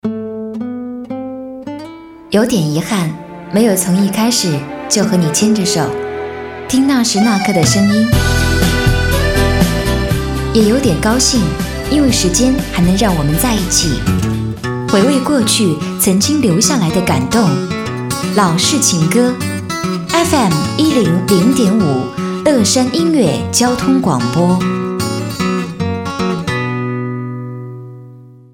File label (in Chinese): 交通广播; 乐山音乐交通广播